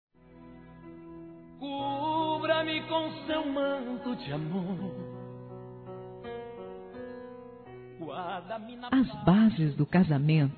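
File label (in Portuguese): ENCONTRO DE CASAIS, feminino; Telemensagens; Telemensagens 2017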